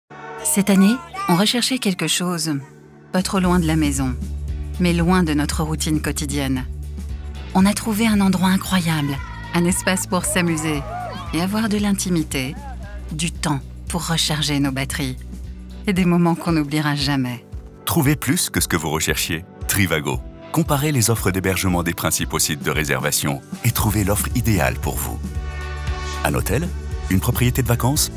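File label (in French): pub voix off, voix off femme; trivago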